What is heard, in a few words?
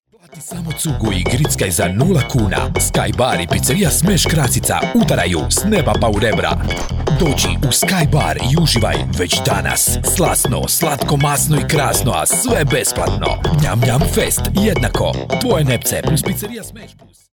male croatian voice over sales